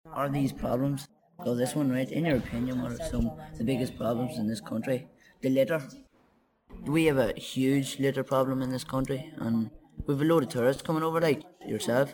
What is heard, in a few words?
Ireland Belfast male monologue